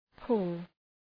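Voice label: "pull", English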